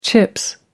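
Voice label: "chips", English, British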